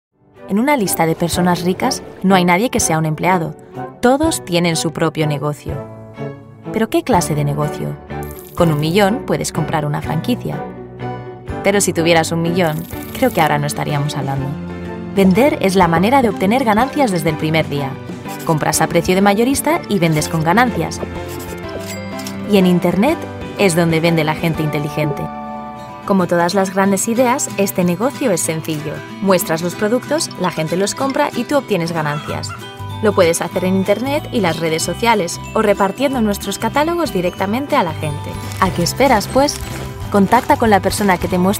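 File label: European Spanish Corporate; voice demos; GoLocalise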